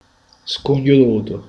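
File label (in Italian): "separato", Italian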